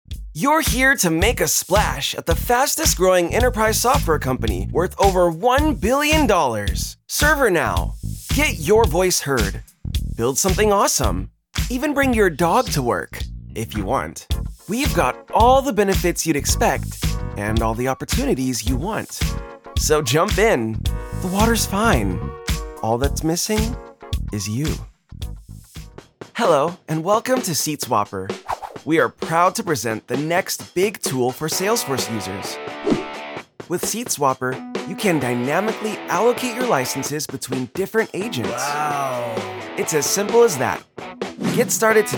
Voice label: Corporate